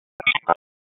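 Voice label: Raleigh Police Southwest District Tactical, p25; wake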